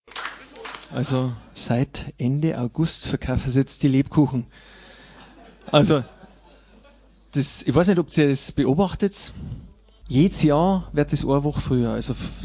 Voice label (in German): Ansage